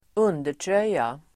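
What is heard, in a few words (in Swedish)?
"undertröja", Swedish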